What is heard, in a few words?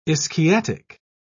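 "ischiatic", British English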